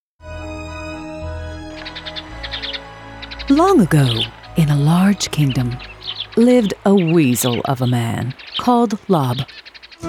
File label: Children's Narration Audio Theater; Lob the Pruner